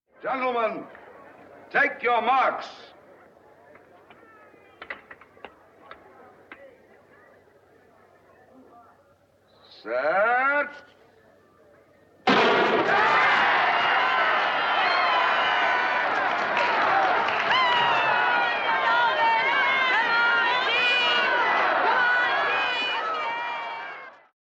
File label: announcement